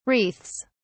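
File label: "wreaths", English, British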